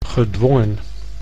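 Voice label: "gedwongen", Dutch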